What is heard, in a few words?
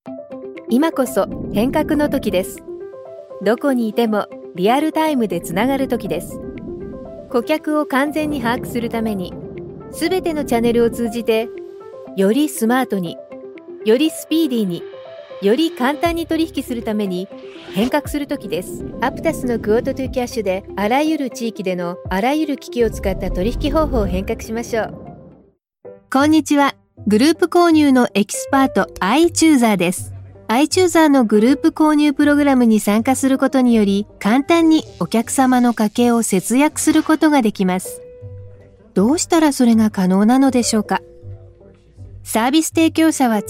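female, Japanese, corporate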